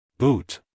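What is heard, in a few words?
"boot", American English, male; everyone-can-use-english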